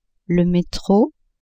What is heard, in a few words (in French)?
"metro", french; transport